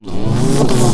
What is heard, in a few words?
Alien Scientist; little robot sound factory